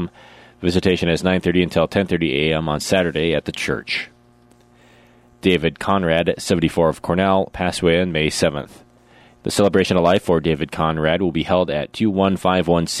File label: Funeral Announcements